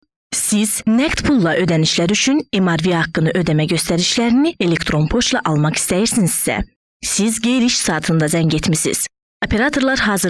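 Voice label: Azerbaijani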